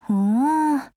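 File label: Japanese; RPK-16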